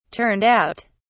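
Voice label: "turned out", British English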